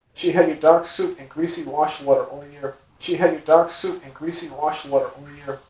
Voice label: Enhanced Speech